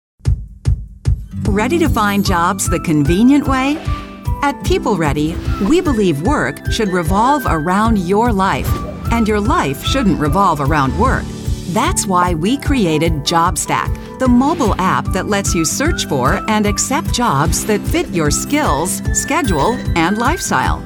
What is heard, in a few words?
Explainer Video VO Longer